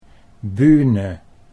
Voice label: "Buehne", Dutch

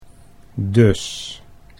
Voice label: "dus", Dutch

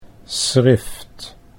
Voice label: "schrift", Dutch

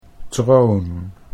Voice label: "troon", Dutch

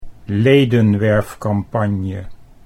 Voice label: "ledenwerfcampagne", Dutch

